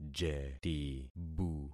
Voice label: "jetibu", concat syllables; Affixing experiment